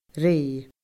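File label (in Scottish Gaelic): "ré", Scottish Gaelic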